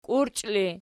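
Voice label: "kiurchi" ergative, Archi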